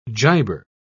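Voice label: "giber", British English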